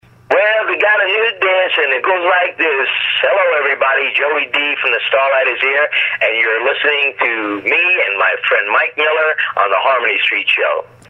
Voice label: Promo

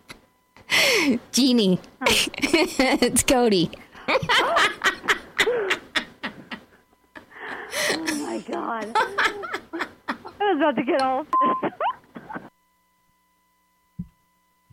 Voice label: fun phone call

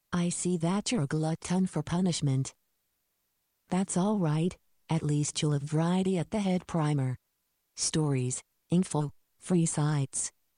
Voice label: announce

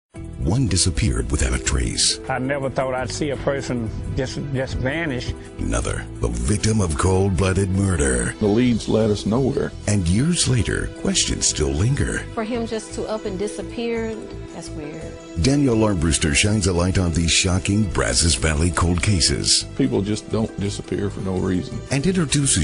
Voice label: news promo; kbtx

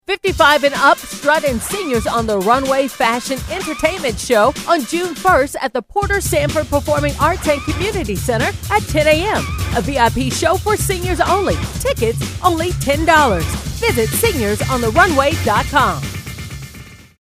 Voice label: radio spot

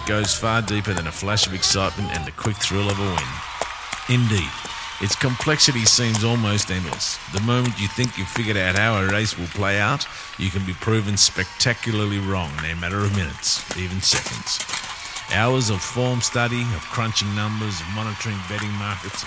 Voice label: aussie horse racing v o

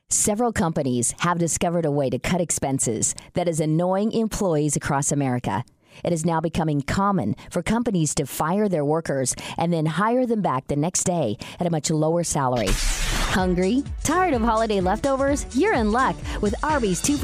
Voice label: mixed various reads

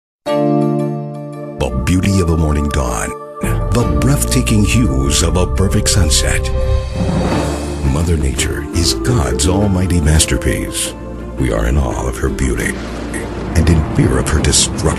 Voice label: weather promo

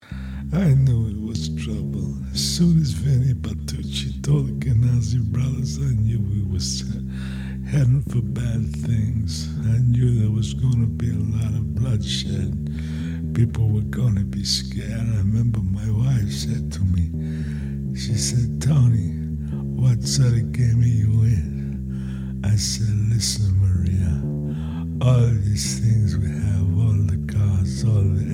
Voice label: mafia accent

